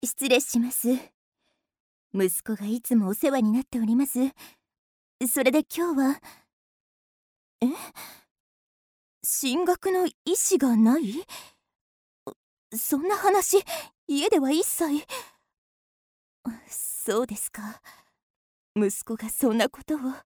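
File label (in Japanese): 女性 ロリ 元気; 優しい3; アトリエピーチ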